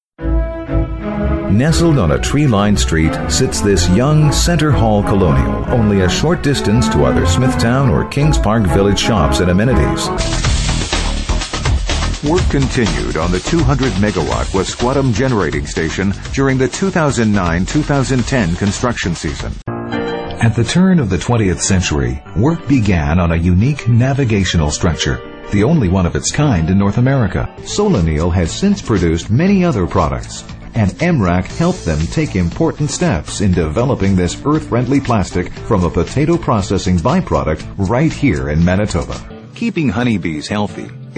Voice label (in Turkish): Amerikan Ingilizcesi Erkek